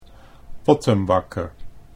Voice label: "pottenbakker", Dutch; potter (ceramics artist)

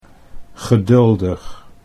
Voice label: "geduldig", Dutch

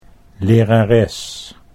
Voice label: "lerares", Dutch

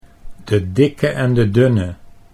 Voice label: "de dikke en de dunne", Dutch

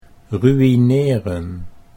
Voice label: "ruïneren", Dutch

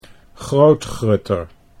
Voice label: "grootgrutter", Dutch

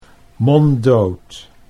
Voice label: "monddood", Dutch

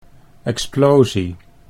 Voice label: "explosie", Dutch

